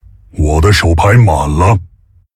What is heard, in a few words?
Male Tauren ERROR HAND FULL, zh